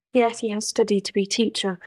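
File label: Voice Acting es, female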